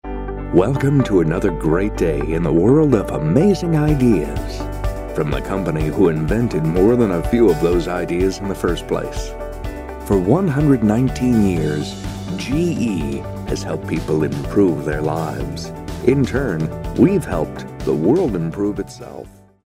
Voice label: wry thoughtful

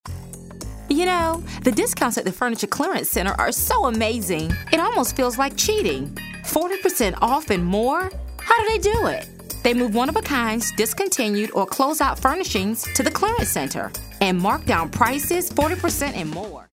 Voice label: upbeat

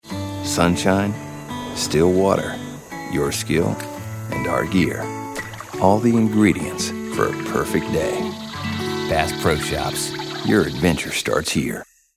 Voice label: soft spoken

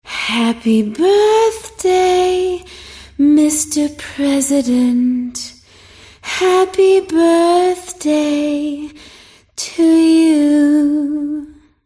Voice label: impressions

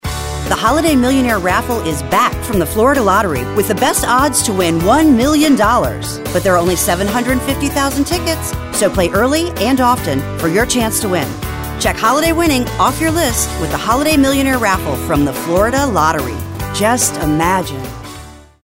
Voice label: upbeat

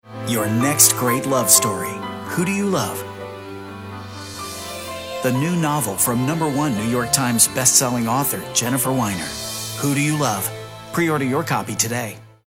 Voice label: romantic

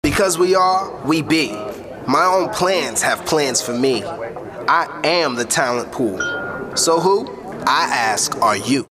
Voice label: kooky

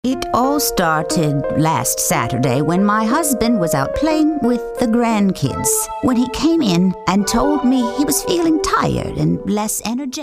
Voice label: older

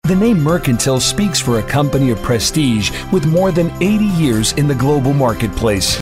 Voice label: straight classy

